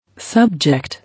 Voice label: "Subject", English